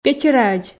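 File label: "печӧраӧдз", Komi, female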